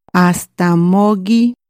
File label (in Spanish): Hasta mañana, Chontal